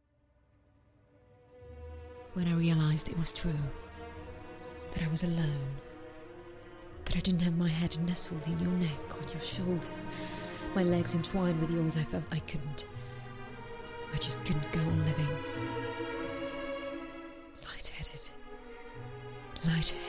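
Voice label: UK English narrative; GoLocalise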